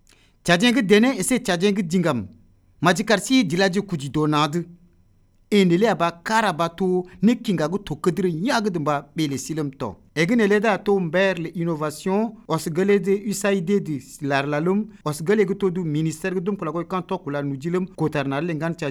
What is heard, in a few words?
Ngambai Message